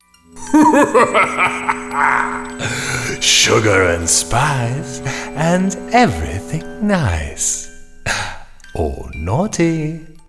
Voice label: Animation Evil Spirit

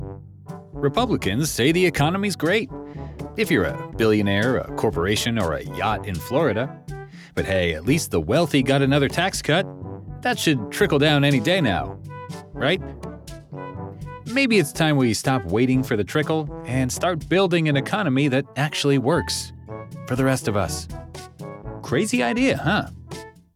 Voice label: Left Amusing, political

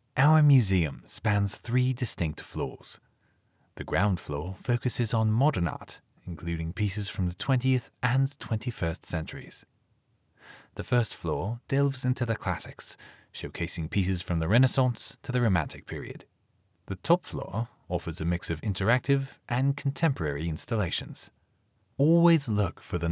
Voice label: Audio Guide British Orientation

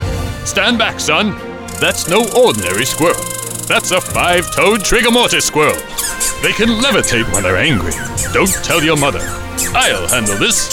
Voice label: Animation Superhero Dad

